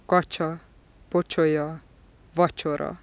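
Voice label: postalveolar affricate voiceless medial, hungarian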